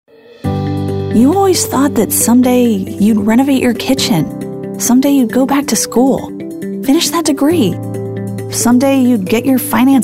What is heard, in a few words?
Motivational